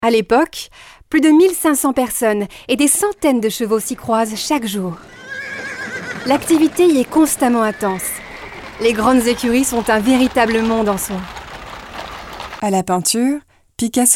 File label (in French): Medley AUDIOGUIDES